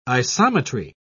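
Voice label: "isometry", British English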